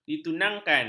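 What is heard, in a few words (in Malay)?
"ditunangkan", Malay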